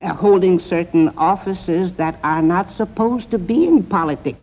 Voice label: Speech New York, american english dialect recordings; Fiorello H La Guardia